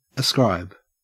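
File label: "ascribe" English, Australian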